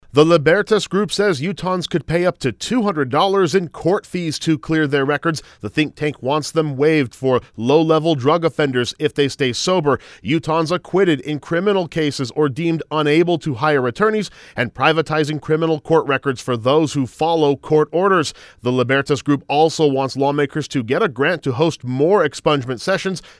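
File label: voice public service announcement; EXPUNGED RECORDS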